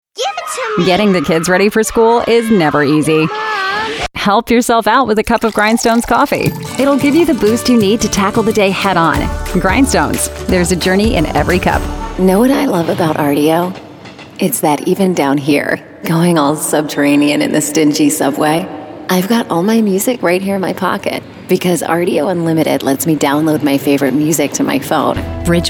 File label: Commercial